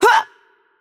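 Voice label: Greek attack heavy voice; bloom minigame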